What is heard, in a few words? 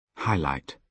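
"highlight", British English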